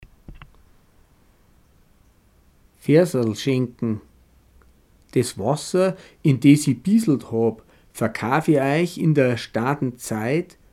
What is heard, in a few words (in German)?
bairisch; Veasalschänggn